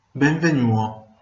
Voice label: welcome, venetian; Benvignùo